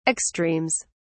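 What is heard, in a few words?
"extremes", British English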